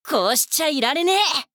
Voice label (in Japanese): 「こうしちゃいられねぇ！」, 女の子, オラオラ系少女